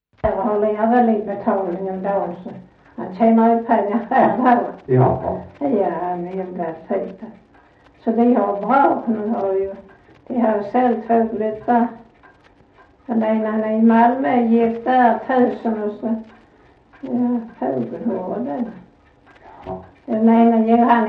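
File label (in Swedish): Sövde Skåne, dialekter; Folkminnen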